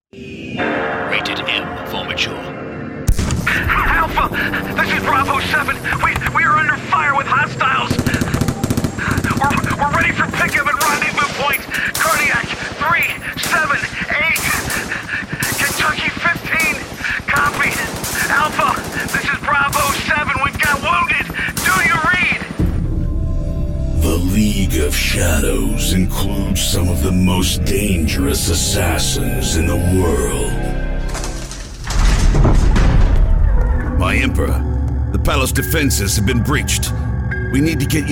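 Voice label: American English, Gaming and VR Animation; GoLocalise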